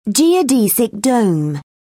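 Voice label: "geodesic dome", British English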